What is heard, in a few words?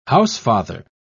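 "housefather", British English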